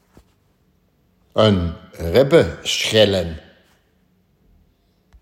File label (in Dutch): Oilsjt Aalst